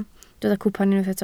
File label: "cwpan", Welsh